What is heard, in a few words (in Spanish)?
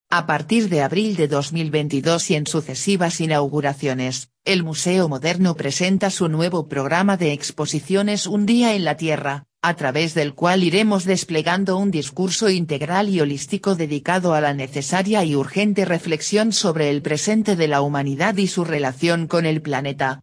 Texto Speech mujer